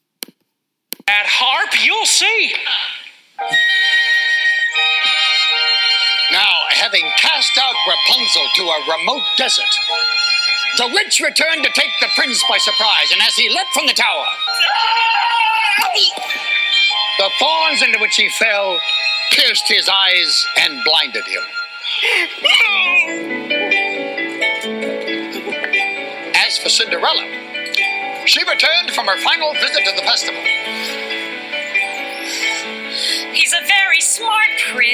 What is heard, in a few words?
Blinding Pre Steps of Palace with Narration